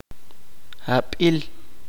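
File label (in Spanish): "año", chuj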